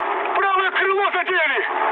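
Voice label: right wing, mood high, Russian; War Thunder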